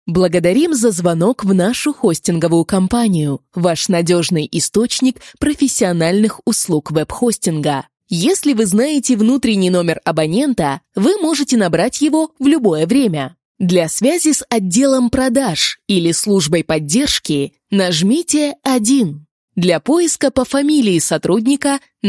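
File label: Phone IVR, Russian; GoLocalise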